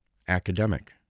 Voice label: "academic", English voice